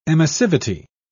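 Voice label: "emissivity", British English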